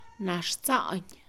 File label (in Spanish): "lombriz", Mixe, Mujer; Animales; INALI